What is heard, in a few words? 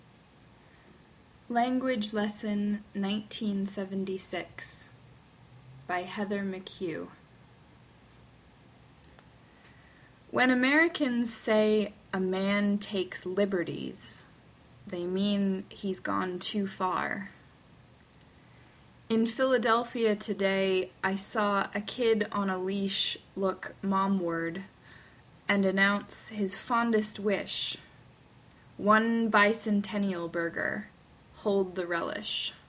Poem